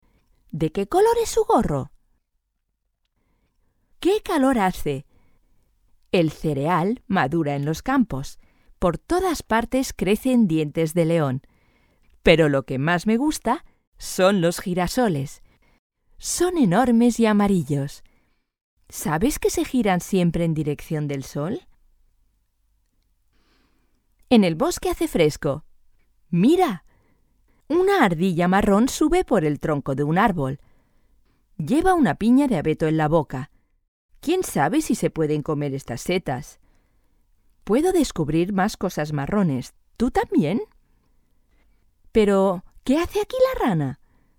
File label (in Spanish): es audiolibro